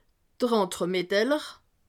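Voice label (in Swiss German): "entremets", Alsatian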